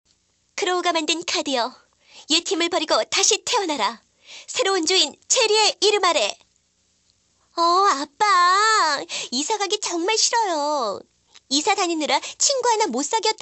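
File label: Korean, female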